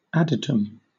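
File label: "adytum", British English; pronunciations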